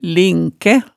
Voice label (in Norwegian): "linkæ", hemsedalsmaalet tale